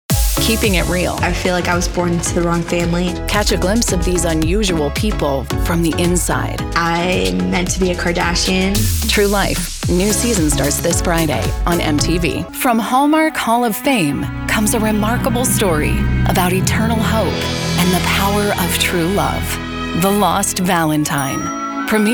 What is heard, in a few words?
english american promos